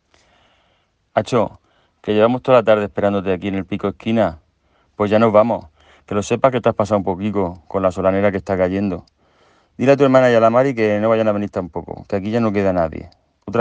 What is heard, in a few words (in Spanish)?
Murciano, Dialectos del español; Concepto de dialecto